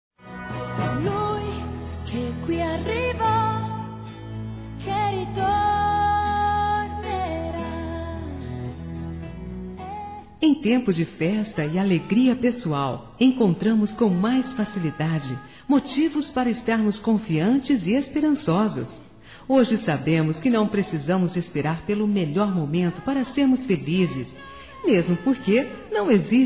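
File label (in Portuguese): ANO NOVO NEUTRO, Feminino; Telemensagens; Natal e Ano novo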